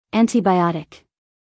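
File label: "antibiotic", American English, female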